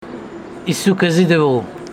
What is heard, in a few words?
Homshetsi, Speaker, i will give it to you